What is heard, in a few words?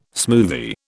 "smoothie", British